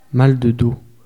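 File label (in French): "mal de dos", French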